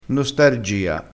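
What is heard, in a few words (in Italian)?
"nostargia", dialettale crespinese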